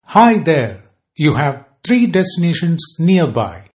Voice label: "three", male